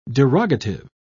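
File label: "derogative", British English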